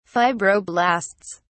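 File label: "fibroblasts", English, British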